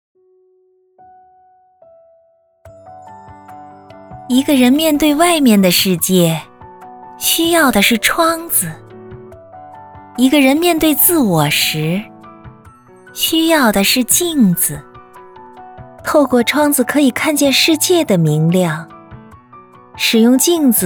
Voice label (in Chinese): 世界读书日公益宣传片甜美亲切